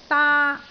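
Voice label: "daa1", Cantonese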